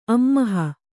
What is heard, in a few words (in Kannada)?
"ಅಮ್ಮಹ", kannada